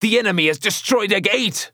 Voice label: Announcer Match Destroyed Team Gate; First Mate; Paladins